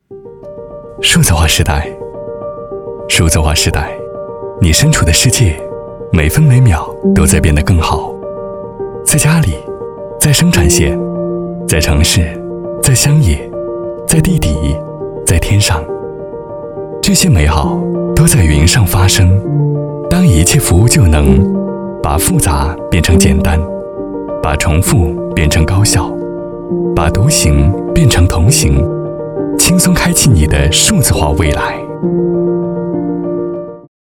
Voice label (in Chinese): 男 走心 数字化时代